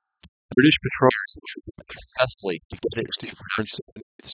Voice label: male male